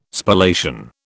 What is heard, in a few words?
"Spallation", British